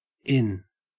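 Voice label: "in", Australian English, stressed; pronunciations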